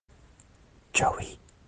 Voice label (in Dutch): fluister